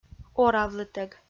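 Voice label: "оравлытӧг", Komi, female; kpv; audio dict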